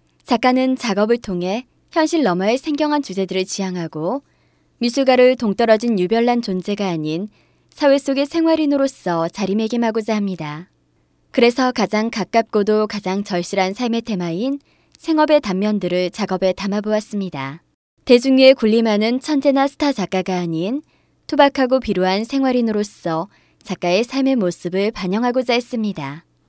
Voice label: korean f

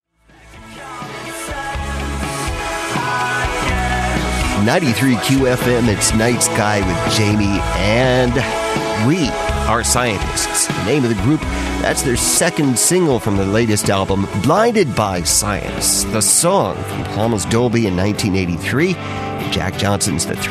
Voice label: dj

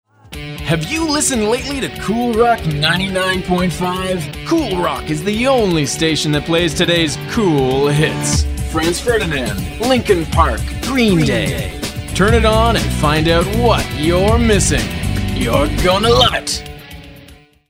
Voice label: radio

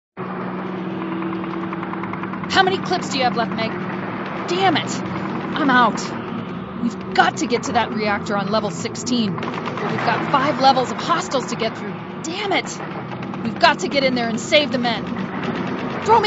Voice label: drama

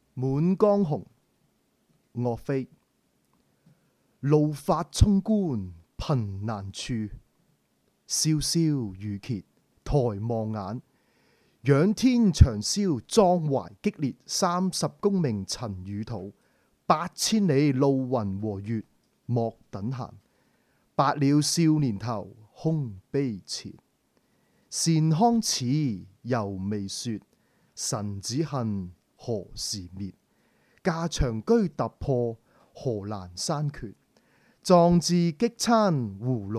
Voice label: poem

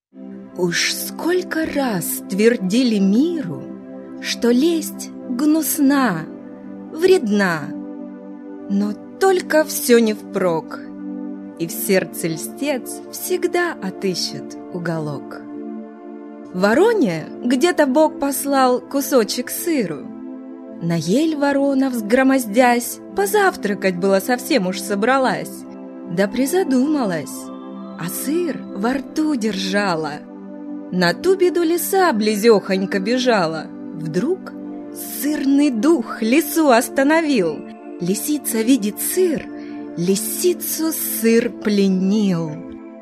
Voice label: story

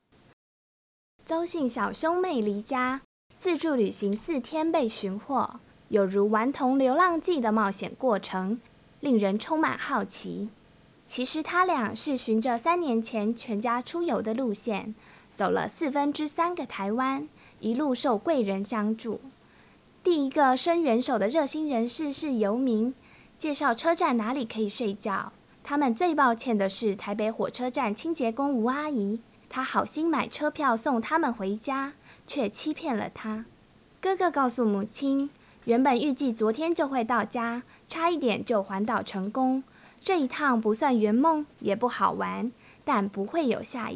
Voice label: news

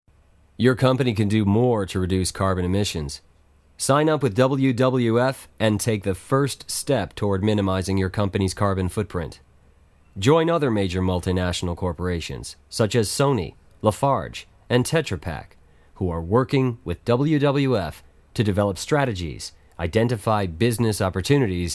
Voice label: narration

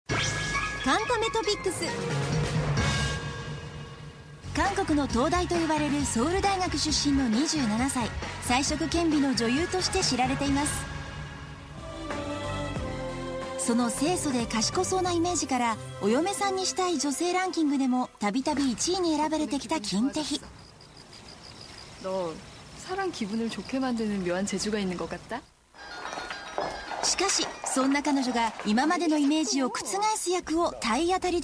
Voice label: japanese

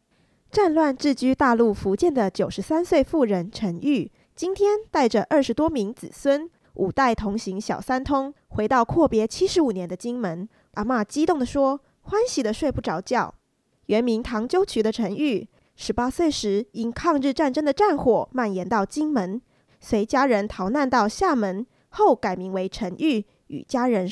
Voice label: news

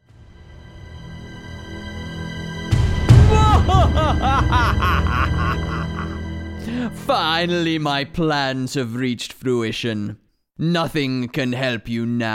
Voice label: character

